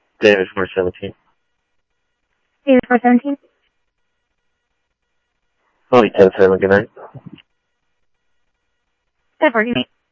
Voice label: Tempe Police, scanner audio; TEMPE PD A04

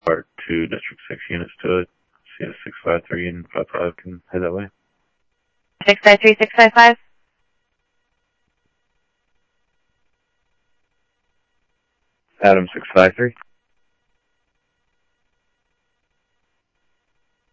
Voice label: Sheriff scanner audio

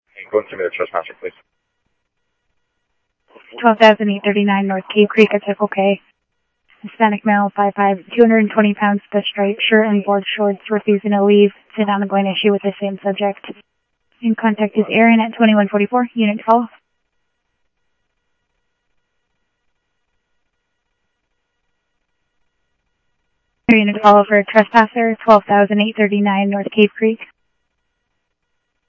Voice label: Phoenix PD, scanner audio

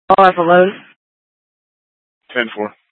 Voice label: PD Dispatch

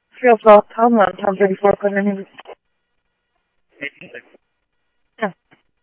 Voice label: Police, scanner; H-Scottsdale; ScottsdalePolice

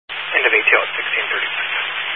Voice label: Highway Patrol, Phoenix Metro West Area, scanner

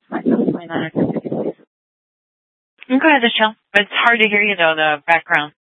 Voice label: Sheriff, scanner P25

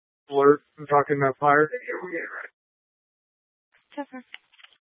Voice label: Tempe PD, scanner; Rgnl Wireless Co-op (RWC)

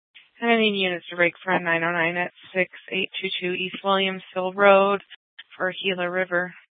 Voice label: Superstition Dispatch, Mesa PD; TOPAZ Regional Wireless Cooperative (TRWC) - Mesa Simulcast; 4 Superstition Dispatch

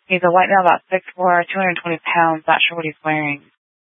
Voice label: Sheriffs Office, scanner

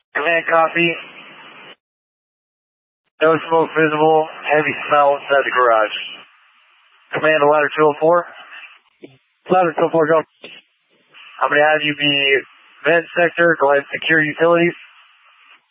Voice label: Fire Dpts VHF 154.800 Patch, scanner

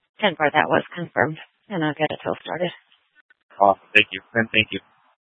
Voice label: Tempe PD, scanner; A04 South

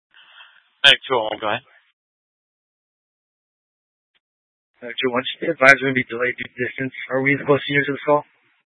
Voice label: Fire Departments Mesa Simulcast; TOPAZ

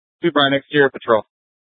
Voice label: Court Security Dispatch, scanner

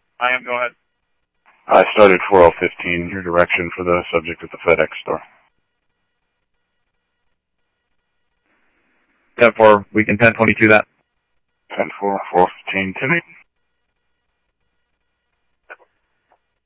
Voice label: Police, scanner audio trunk; H-Scottsdale

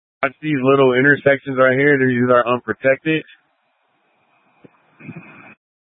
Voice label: Light Rail Emergency, scanner

